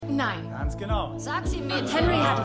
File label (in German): Aktivistin, Stimmproben